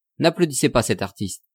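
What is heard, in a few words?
"napplaudissez pas cet artiste", French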